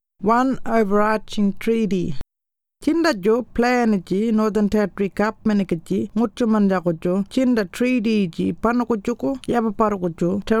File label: Warlpiri; One Overarching Treaty